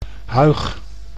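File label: "huig", Dutch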